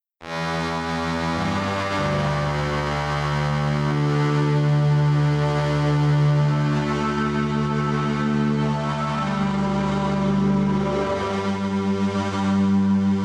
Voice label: instrument modus vocoder human